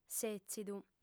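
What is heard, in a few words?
"sètzidu", lingua sarda, female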